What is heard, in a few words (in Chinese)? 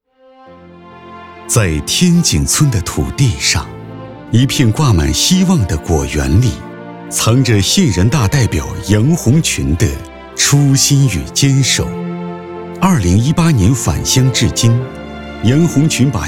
男 专题 讲述感纪录片